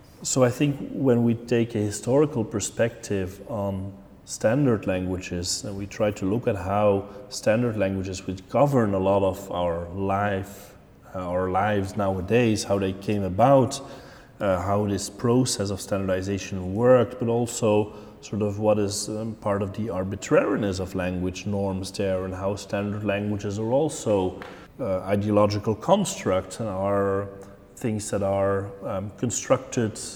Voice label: abstract english